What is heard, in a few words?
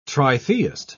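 "tritheist", British English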